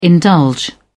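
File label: "indulge", British English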